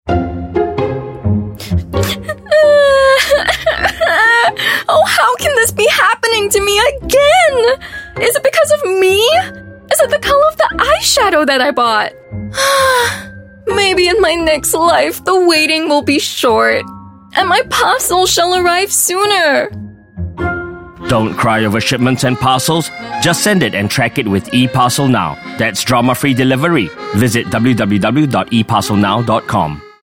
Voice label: Asian English Radio; EParcel Now